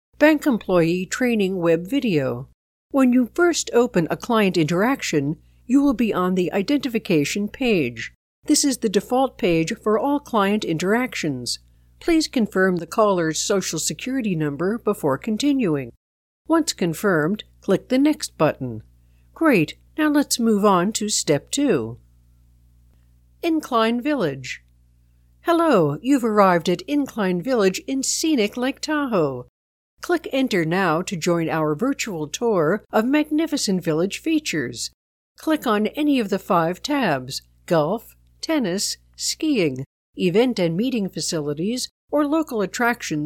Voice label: eLearning samples